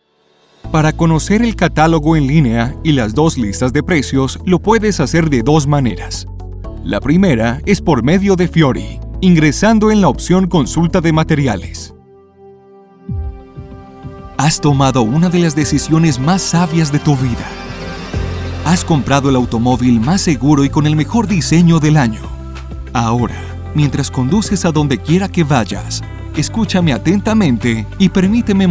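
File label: Spanish Latin American, E-Learning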